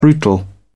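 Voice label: "brutal", English, British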